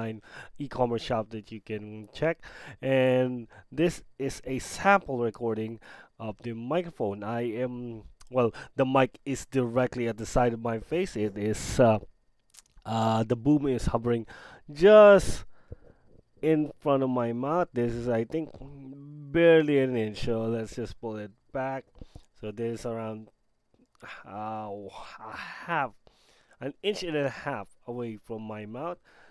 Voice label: hyperx cloud iii gaming headset mic test

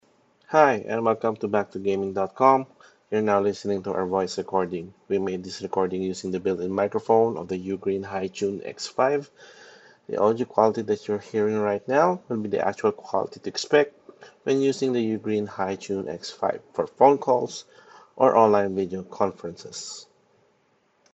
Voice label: ugreen hitune x5 earbud microphone test

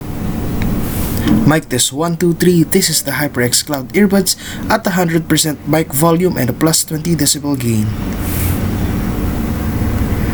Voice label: HyperX Cloud Earbuds

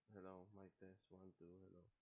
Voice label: With No Mic

